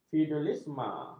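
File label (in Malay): "feudalisme", Malay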